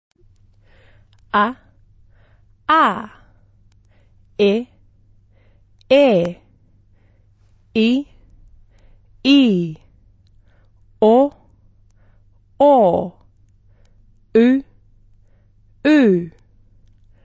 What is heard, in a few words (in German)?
vokale, maori